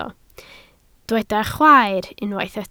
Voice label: "chwaer", Welsh